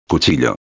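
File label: "cuchillo", Spanish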